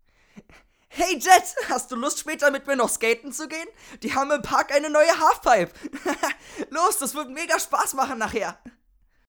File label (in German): Zeichentrick